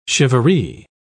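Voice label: "charivari", British English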